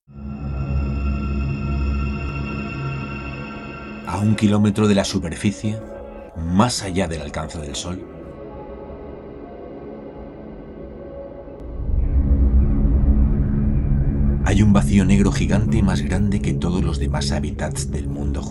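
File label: documentaries, Español, Hombre; Documentales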